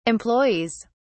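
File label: "employees", British English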